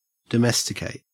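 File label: "domesticate", Australian English; pronunciations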